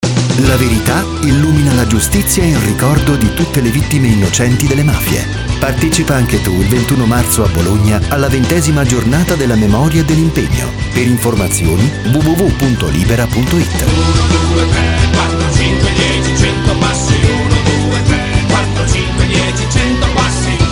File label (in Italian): spot radiofonico; libera avviso pubblico